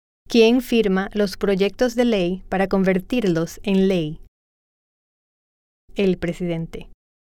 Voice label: Spanish